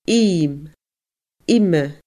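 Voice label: "im ime", Scottish Gaelic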